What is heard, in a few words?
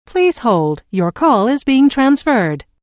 Please Hold Your Call Is Being Transferred, Telephone prompt; Pre-recorded telephone on-hold message; Recorded Voice Sound Effects